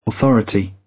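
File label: "authority", British; Crime and punishment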